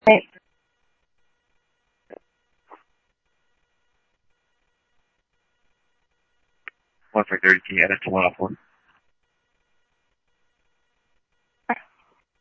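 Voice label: police radio dispatch, Scottsdale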